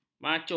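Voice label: "maco", Malay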